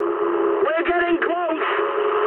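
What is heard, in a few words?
English near waypoint mood high; War Thunder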